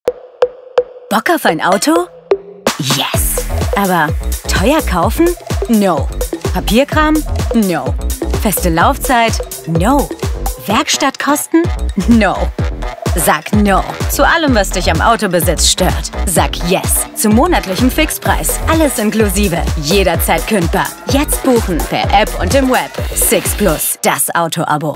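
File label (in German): German TV spot; SIXT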